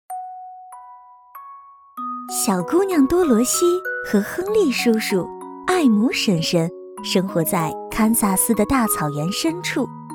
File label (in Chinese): 多媒体 儿童故事 讲故事